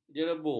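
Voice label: "jerebu", Malay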